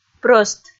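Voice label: "прӧст", Komi, female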